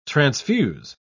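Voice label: "transfuse", British English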